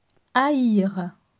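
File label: "Hair", French; grammaire; les articles definis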